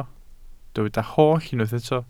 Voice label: "holl", Welsh